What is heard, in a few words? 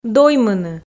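"доймыны", Komi, female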